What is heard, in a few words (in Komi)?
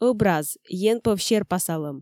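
ӧбраз енпӧв серпасалӧм, Komi, female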